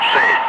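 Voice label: Russian speech, Ka-50 Wingman; Digits; DCS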